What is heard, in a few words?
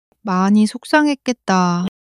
sadness, monologue